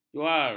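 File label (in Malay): "juar", Malay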